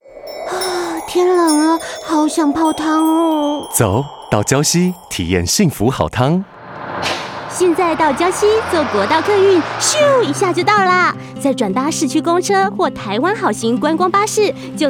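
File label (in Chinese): 溫暖質感, 男性配音員; 台灣好行; 冬戀宜蘭溫泉季30秒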